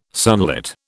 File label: "sunlit", British